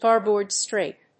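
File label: "garboard-strake", English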